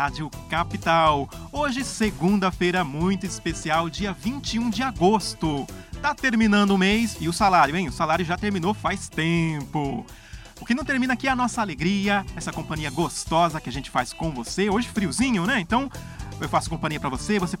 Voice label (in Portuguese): radio tarde; radio capital